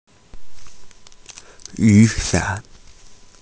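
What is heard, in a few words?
"yu3 san3", Mandarin Chinese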